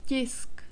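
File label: "tisk" Czech, male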